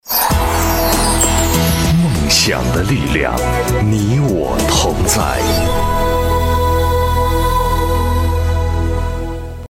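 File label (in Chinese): 广告_片头片花_频道声; 东方卫视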